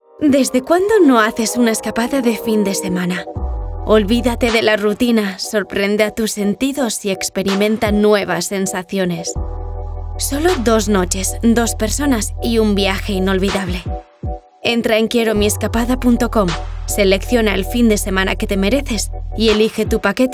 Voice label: RADIO CASTELLANO

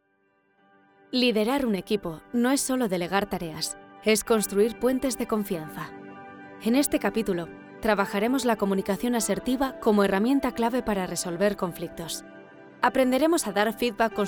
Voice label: E-Learning Castellano

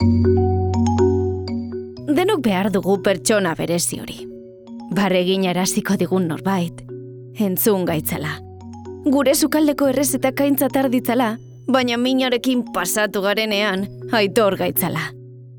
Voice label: Publi Euskera

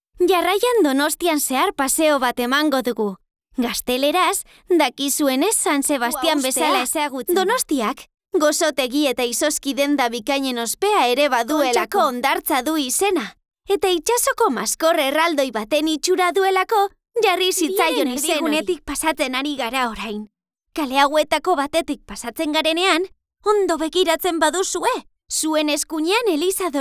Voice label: Audioguia; Donosti

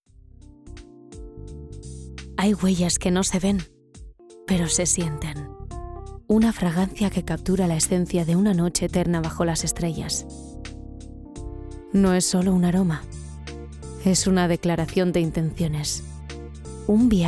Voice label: Publi Castellano

